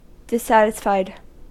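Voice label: "dissatisfied", American English; pronunciations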